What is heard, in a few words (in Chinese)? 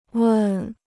"问", Chinese